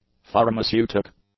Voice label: "pharmaceutic", english; adjectives

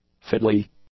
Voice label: "fiddly", english; adjectives

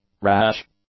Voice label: "rash", English; adjectives

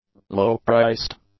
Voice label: "low-priced", English; adjectives

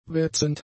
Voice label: "wetzend", German; adjectives

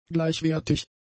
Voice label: "gleichwertig", German; adjectives

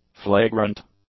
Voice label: "flagrant", english; adjectives

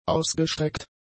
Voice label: "ausgestreckt", German; adjectives

